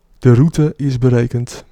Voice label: Route Calculated, Dutch, male

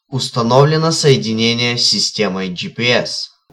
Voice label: Gps Found, Russian, male

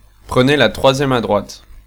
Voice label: 3rd Right, French, male

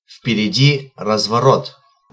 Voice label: U Turn, Russian, male

